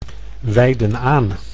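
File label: "wijden aan", Dutch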